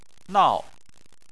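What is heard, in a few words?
"nao", Chinese, fourth tone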